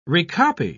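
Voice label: "recopy", British English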